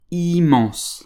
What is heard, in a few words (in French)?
"immemse", French